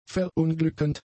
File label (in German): "verunglückend", German; adjectives